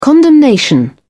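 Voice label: "condemnation", British English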